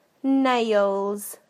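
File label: "nails", British English; PictureDictionary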